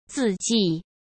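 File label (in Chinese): "字迹", Mandarin Chinese; hsk; chinese flashcards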